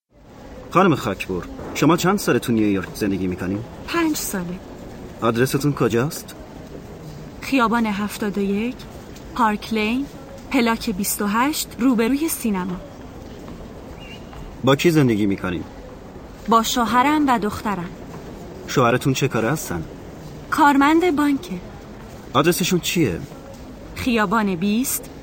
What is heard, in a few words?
Dialogue lesson Farsi